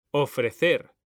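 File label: "ofrecer", Spanish; mekanta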